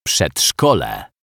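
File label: "przedszkole", Polish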